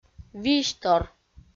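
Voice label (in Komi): "визьтор", Komi, female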